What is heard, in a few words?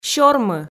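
"сёрмы", Komi, female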